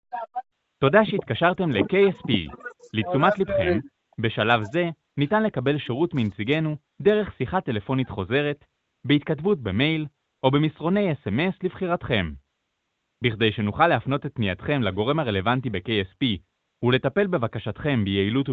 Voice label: call recording; K.S.P. JERUSALEM TALPIOT LTD